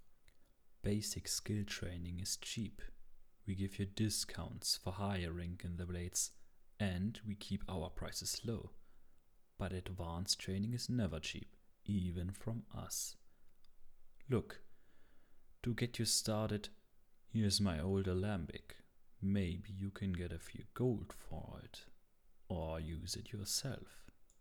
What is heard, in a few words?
voice acting audition high elf; tyermaillin